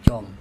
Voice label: "cOm" betalnut, andamanese